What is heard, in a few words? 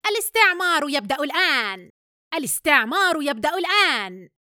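Alien Threatening; Gaming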